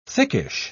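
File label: "thickish", British English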